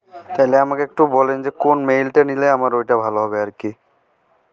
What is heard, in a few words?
voice message